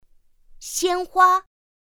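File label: "xiānhuā", Mandarin Chinese pinyin; pinyin2; pinyinji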